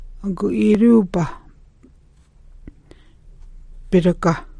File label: aguʔiiruhbaa biraga twentieth, Hidatsa